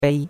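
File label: "bei1", Chinese; Cours-de-Chinois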